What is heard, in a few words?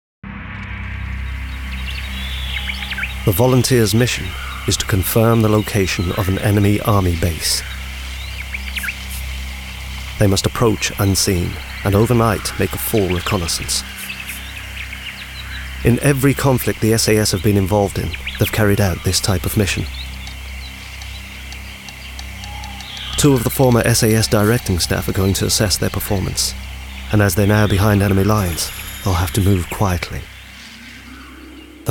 Documentary